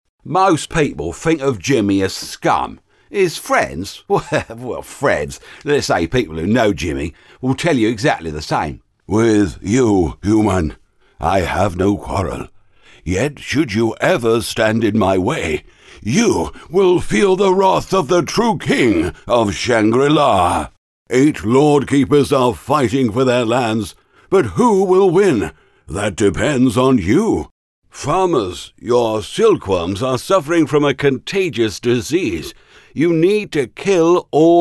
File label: character voices uk voiceover